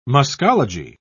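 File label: "muscology", British English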